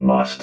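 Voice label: "must", robot voice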